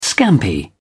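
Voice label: "scampi", British English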